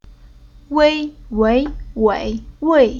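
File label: "Wei", chinese syllable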